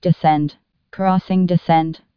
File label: descend crossing, tcas, female; fgdata